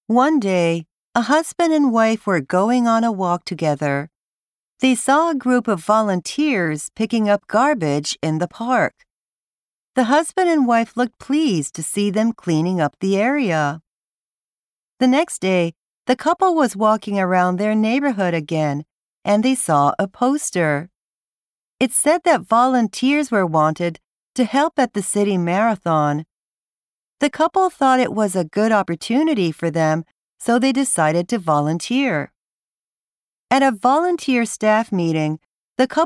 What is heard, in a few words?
Speaking Model Narration